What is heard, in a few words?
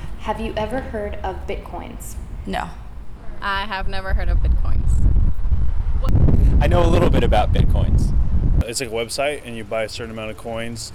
voxpop; summer